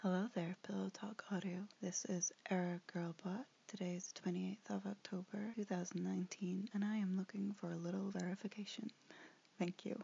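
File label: Verification, female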